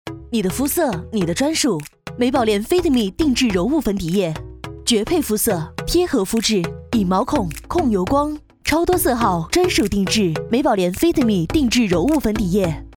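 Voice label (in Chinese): 广告柔雾粉底液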